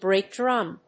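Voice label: "brake drum", English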